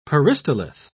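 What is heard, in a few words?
"peristalith", British English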